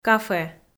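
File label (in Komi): "кафе", Komi, female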